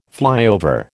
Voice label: "flyover", American